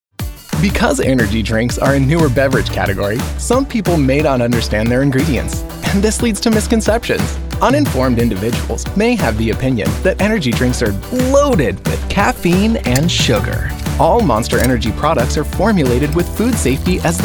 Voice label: explainer